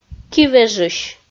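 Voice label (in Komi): "ки вежысь", Komi, female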